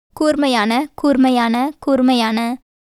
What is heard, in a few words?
Tamil